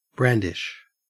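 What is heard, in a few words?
"brandish", Australian English; pronunciations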